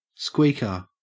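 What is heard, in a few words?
"squeaker", Australian English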